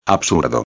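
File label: "absurdo", Spanish; ortografia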